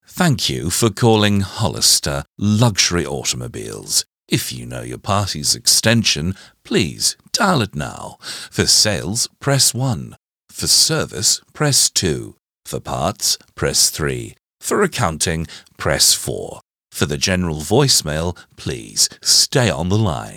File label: British; AdvantageIVR